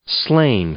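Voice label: "slain", English, male; verb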